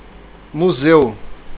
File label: museum, portuguese